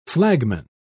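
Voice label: "flagman", British English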